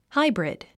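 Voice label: "hybrid", English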